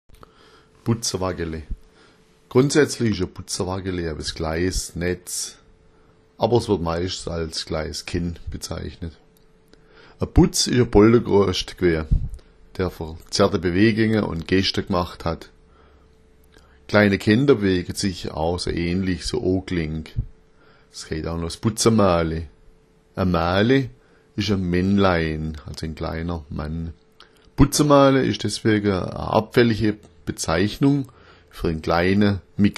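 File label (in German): buzzawaggele, schwäbisch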